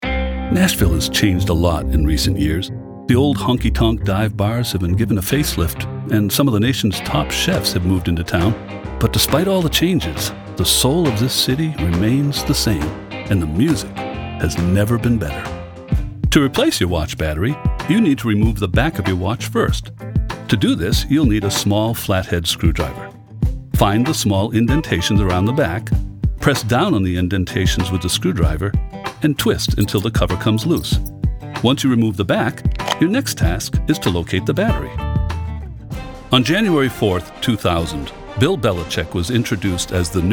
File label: Narration